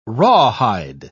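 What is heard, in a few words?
"rawhide", British English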